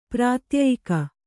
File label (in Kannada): "ಪ್ರಾತ್ಯಯಿಕ", Kannada